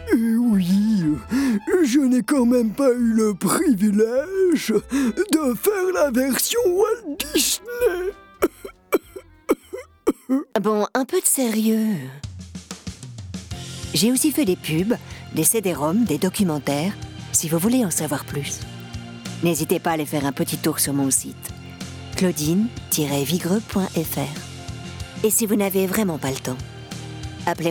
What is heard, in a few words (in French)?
medley voix jouées